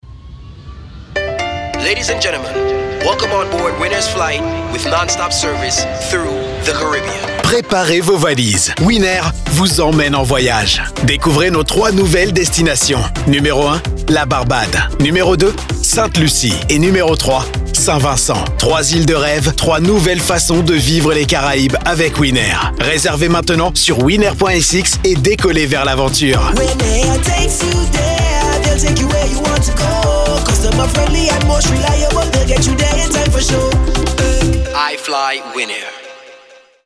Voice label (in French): Spot Radio; Winair